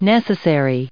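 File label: "necessary", us English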